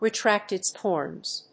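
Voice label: "retract its horns", English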